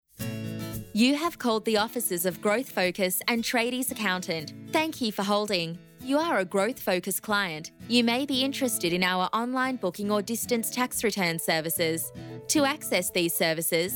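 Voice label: Australian Female Voice Talent Phone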